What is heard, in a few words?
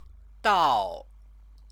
"dao", Chinese; Chinese lessons